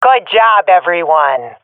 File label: round won, swat fem; Counter Strike Model Pack; CS:GO